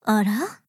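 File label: MOOD, Japanese; haze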